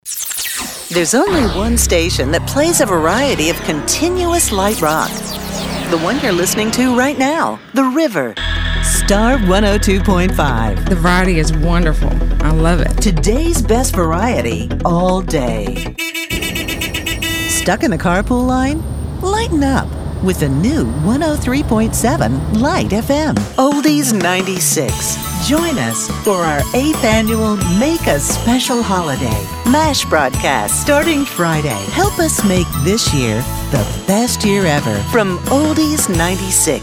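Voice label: Imaging Female, Rich, Solid, Natural; Commercial Voiceover, Promo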